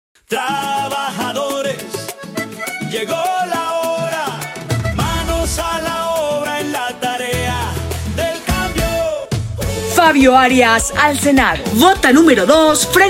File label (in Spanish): Jingle Vallen Locucion hombre; 20 seg